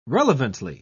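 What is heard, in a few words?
"relevantly", British English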